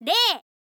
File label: "rei", info girl voice